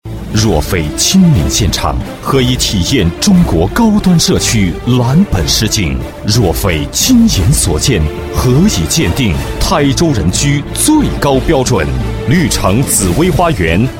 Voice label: 男, 广告; 绿城房地产

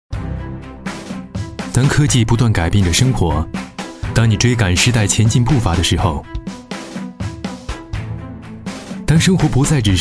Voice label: 男 广告; 国奥膜卡

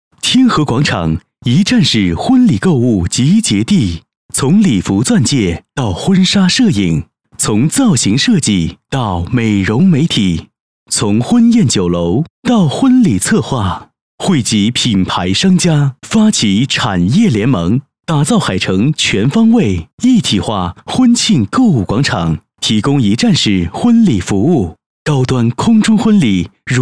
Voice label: 男 广告; 天河婚庆

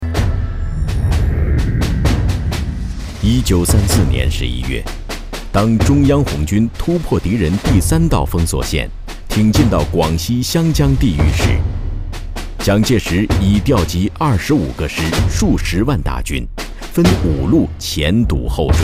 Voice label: 男 纪录片 军事战争历史党史

